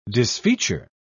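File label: "disfeature", British English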